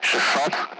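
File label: Speech, Russian, Numbers, 60; Allied Flight; DCS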